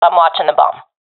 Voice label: guarding live bomb, professional fem; vo; Counter Strike Model Pack; CS:GO

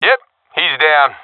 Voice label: i killed enemy, professional; Counter Strike Model Pack; CS:GO

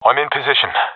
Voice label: in position, professional epic; Source Model Packs; Counter Strike Model Pack; CS2

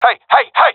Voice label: takingfire friendly, professional epic, agents; vo; Source Model Packs; Counter Strike Model Pack; CS2

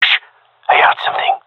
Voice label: heard sound, gendarmerie male; vo

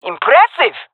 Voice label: compliment, fem; player vo; Counter Strike Model Pack; CS:GO

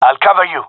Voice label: covering friend, gendarmerie male; vo

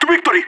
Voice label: radio lets go, vo agents; leet

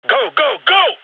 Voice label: radio lets go; gign

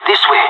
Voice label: radio follow me, pirate; Counter Strike Model Pack; CS:GO

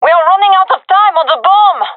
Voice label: cover defuse, gendermerie fem; vo

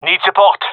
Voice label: male request backup; vo

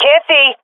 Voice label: blinded, swat fem; vo; Counter Strike Model Pack; CS:GO

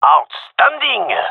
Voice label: cheer, gendarmerie male; vo

